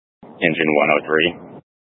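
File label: Incident Tac 2, Scanner audio recording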